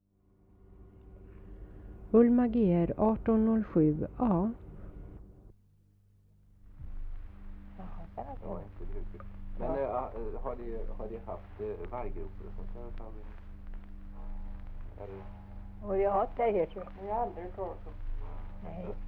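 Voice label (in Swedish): Järnskog Värmland, dialekter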